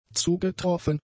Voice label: "zugetroffen", German; adjectives